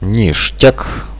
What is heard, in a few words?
oh yes, radio bot; Reallite